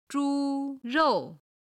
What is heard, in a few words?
"zhurou", Chinese